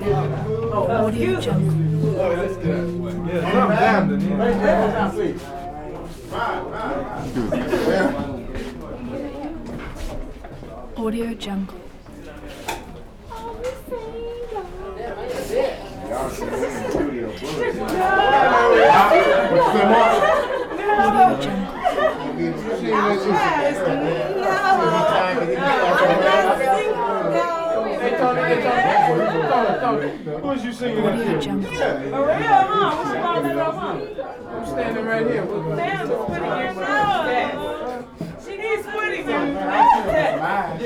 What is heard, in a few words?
party walla constant bass player jams laughter